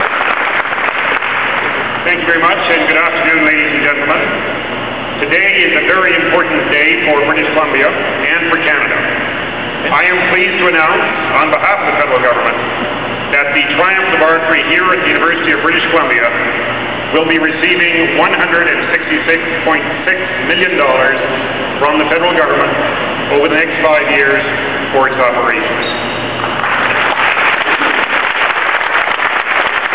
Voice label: announce